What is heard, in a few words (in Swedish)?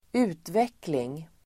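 "utveckling", Swedish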